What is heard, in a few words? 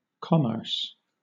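"commerce", British English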